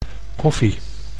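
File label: "koffie", Dutch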